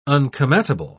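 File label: "un-come-at-able", British English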